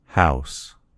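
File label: "house", American English; gcompris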